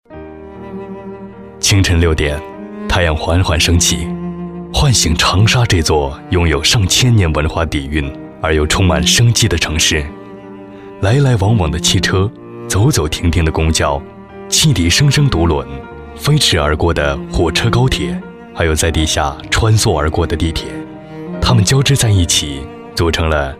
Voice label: 男 专题解说 品质讲述; 长沙

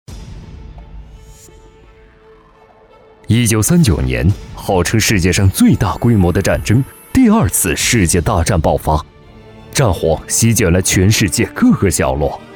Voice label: 男 比赛解说

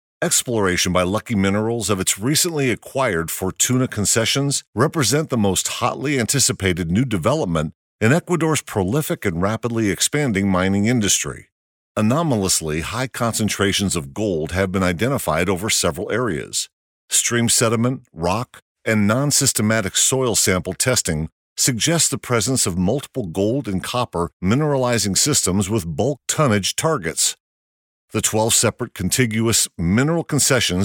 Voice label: 外籍男 项目解说

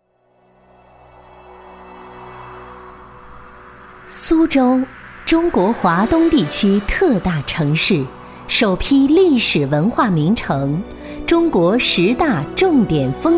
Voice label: 女 苏州（古典知性大气）

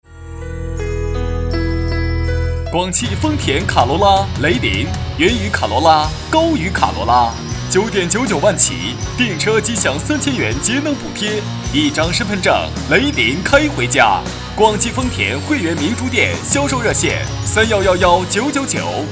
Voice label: 男35 汽车销售店（激情）

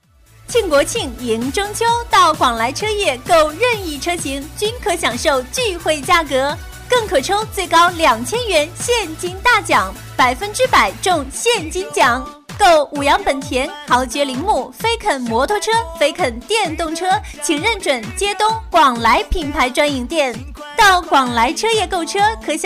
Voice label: 女 车业（激情）

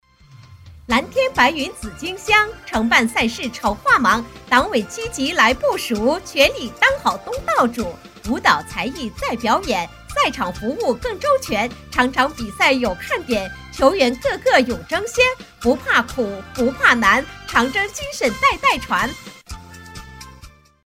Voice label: 女 快板

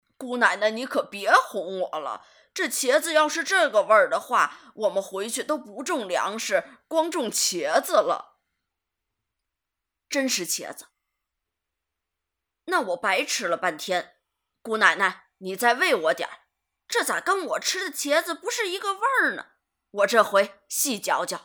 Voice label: 女 模仿; 模仿刘姥姥; 分类：老年声

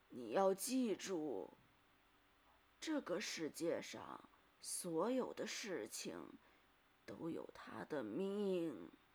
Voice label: 女 模仿老太太

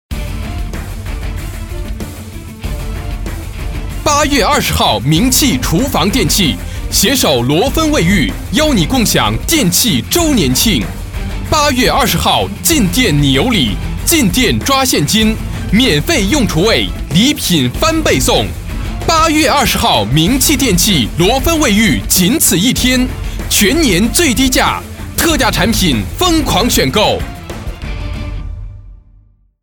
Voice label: 男23 电器促销（激情力度）

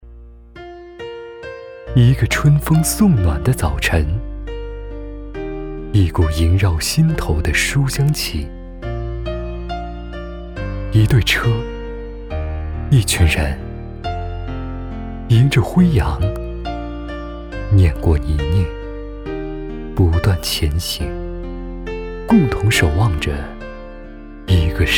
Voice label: 男 深情旁白; 实惠年轻

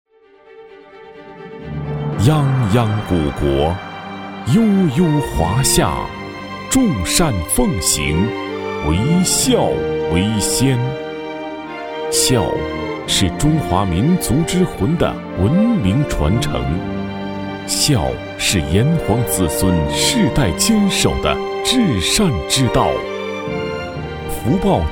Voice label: 男 浑厚感情; 福报健康集团

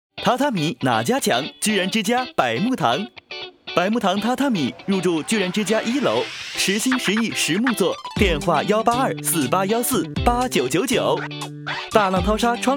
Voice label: 男 阳光 活泼; 榻榻米

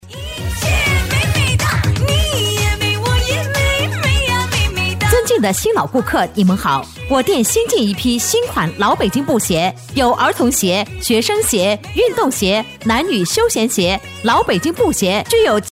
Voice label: 女 老北京布鞋（激情）

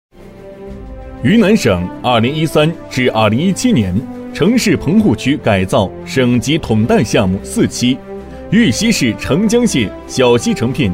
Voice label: 男 云南建投棚户区改造（正式）

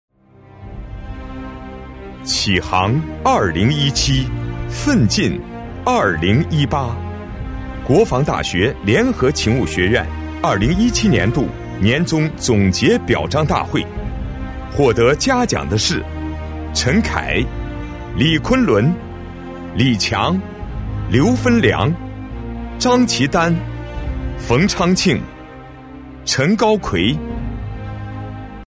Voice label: 男 企业颁奖（庄重）; 浑厚

